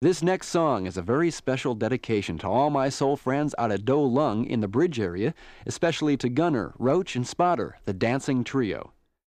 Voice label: Radio Spot Music, radio voice over

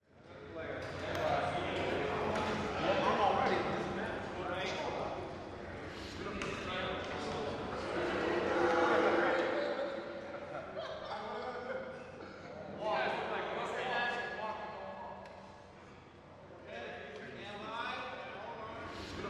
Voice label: Mens Locker Room Wal, men walla

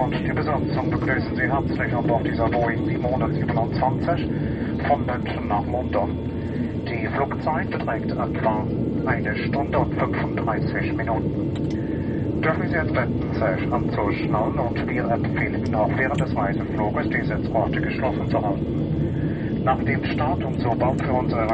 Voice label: Airport Preflight An, flight announcements; Interior Boeing 727 Preparing For Take Off

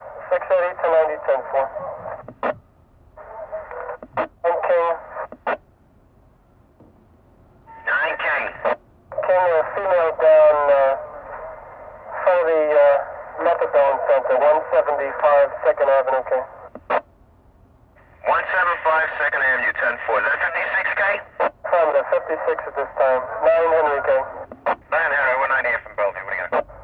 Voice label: Police Radio, Police Radio, Car To Dispatcher.

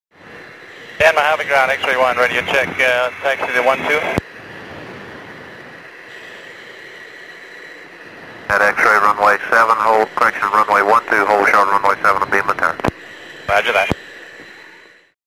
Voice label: Takeoff Exchange, radio

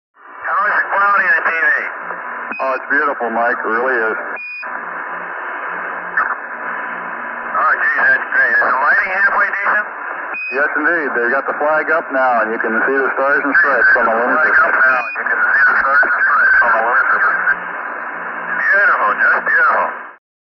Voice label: Radio space Dialogue, static voices

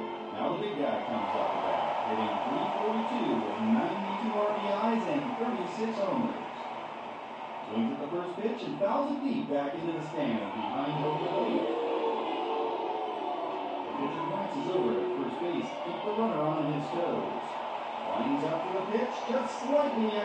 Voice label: Tv Baseball Game Ann

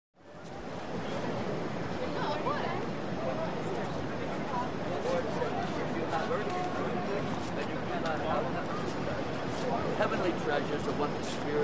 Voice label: Male Street Preacher; church

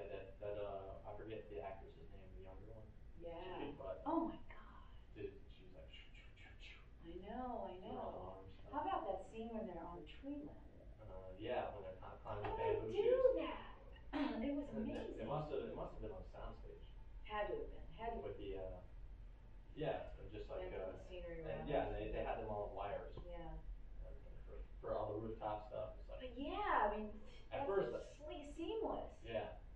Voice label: Talk; Couple Talking Quietly, From Next Room.; murmurs various

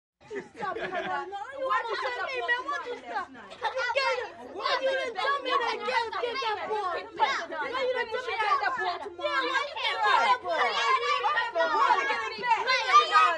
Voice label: 5 Kids Arguing Louder

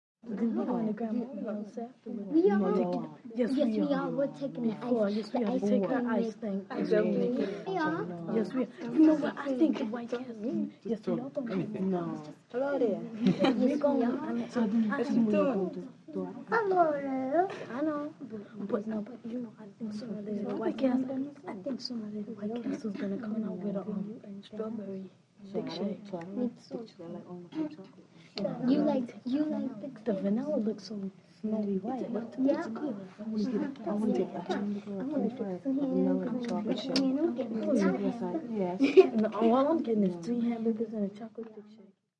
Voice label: Group Kids Talk

